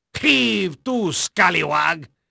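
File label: Spanish male, voice; Pirates Of The Caribbean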